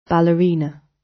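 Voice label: "ballerina" British English; The arts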